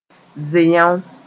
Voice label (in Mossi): "zeyão", moore